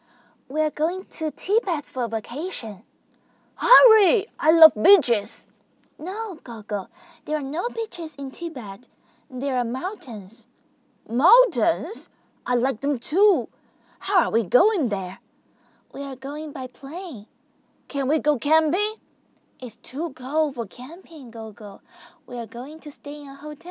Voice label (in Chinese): 英文对话